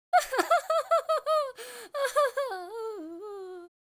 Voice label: sad

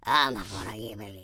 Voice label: relief, London Cabbie; speech

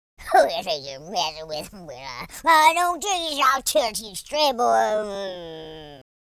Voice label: angry, Drill Sergeant; speech

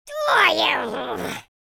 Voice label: angry, Footy Fan speech

